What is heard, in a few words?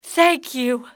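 thank, english female; XBOX; civ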